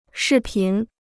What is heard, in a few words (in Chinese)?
"视频", Chinese; shì pín; video